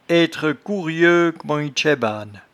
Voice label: "etrecourieux", patois jura

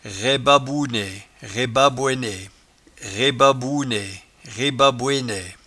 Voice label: "raibabouenaie", patois jura

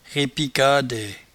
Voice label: "repicadaie", patois jura

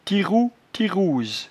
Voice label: "tiroutitouse", patois jura

